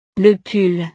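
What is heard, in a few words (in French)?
"le pull", French; ropa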